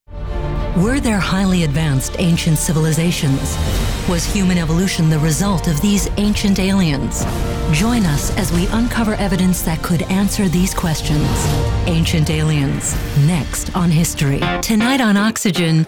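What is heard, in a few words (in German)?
English US Werbung Dynamisch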